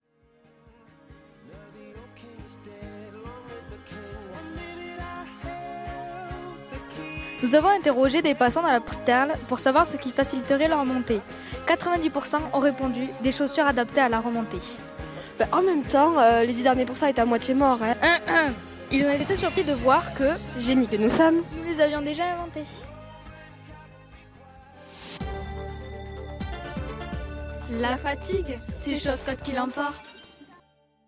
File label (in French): pub musique